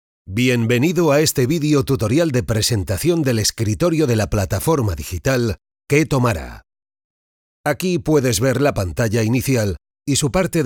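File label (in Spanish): e-learning, Spain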